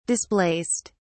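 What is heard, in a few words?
"displaced", English, British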